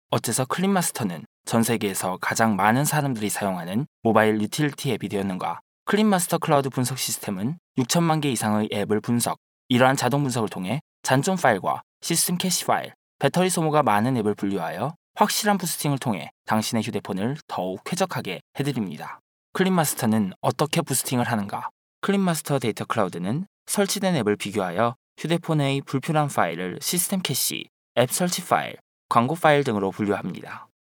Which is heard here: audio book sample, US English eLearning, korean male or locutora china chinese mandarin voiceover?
korean male